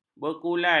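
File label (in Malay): "berkulai", Malay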